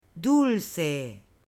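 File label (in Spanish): "DULCE", Spanish; ALIMENTOS; AppLenguaje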